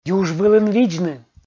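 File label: "юж вылын видзны", Komi, male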